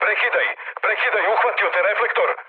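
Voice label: Serbian searchlite mood med; speech; War Thunder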